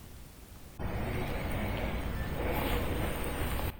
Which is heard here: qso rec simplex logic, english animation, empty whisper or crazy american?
empty whisper